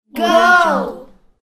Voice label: kids say go